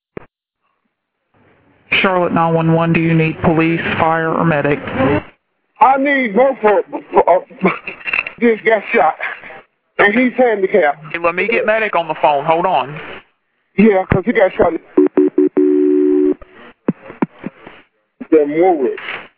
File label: 911 call; Shooting Of Husband And Wife; north charlotte homicide; 911 Call Released In Shooting Of Husband And Wife